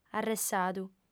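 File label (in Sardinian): "arressadu", female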